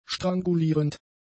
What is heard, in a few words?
"strangulierend", German; adjectives